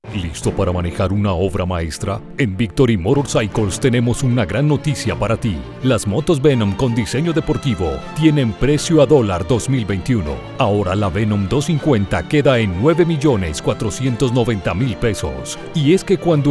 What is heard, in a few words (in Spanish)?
Radio; Victory Venom 250